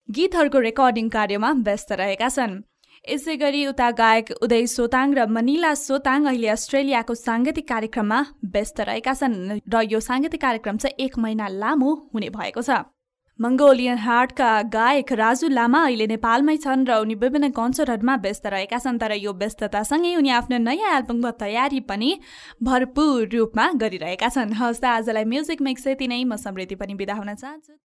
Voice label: female nepali voice over narrative